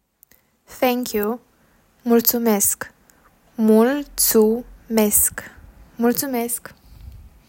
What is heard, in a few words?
"Thank you", Romanian